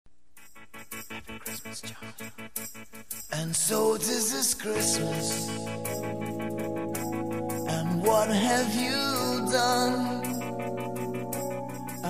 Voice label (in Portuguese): Feliz Natal e Ano Novo Romantico, F